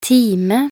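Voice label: "time", Norwegian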